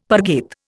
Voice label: "parget", Indian; Dictionary

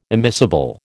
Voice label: "immiscible", American

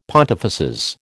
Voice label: "pontifices", American

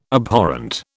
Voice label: "Abhorrent", British

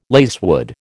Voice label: "lacewood", American

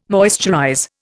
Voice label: "moisturize", Indian

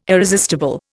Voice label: "irresistible", Indian

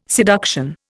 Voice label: "seduction", Indian

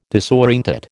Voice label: "disorientate", American

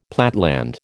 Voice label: "platteland", American

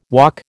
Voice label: "walk", American

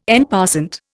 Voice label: "en passant", Indian

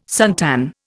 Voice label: "suntan", Indian

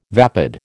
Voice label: "vapid", American

